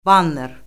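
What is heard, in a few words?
"banner", Azerbaijani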